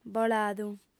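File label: "boladu", female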